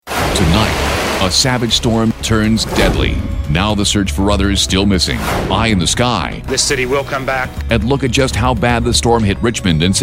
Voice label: TV Promos